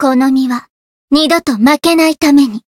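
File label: Voice chant, Japanese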